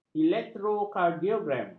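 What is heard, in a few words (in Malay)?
"elektrokardiogram", Malay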